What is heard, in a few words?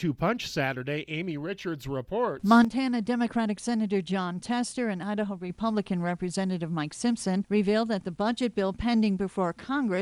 radio news; Wyoming